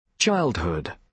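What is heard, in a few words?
"childhood", British English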